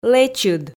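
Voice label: "лэчыд" Komi, female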